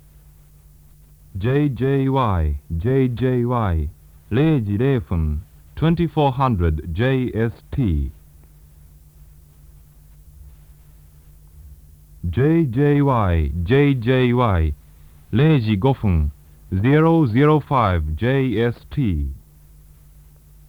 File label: announce; ShortWave; after 1959